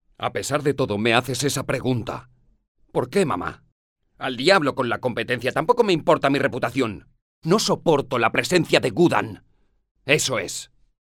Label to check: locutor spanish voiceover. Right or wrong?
Right